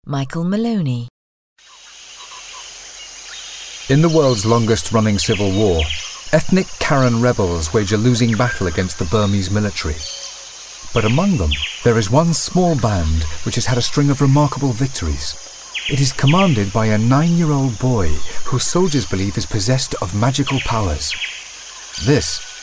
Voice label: narr